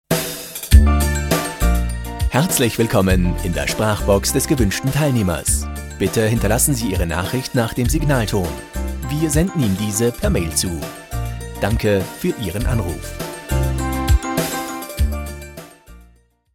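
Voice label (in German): Standard männlich universal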